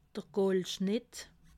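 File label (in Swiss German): "goldschnitt", Alsatian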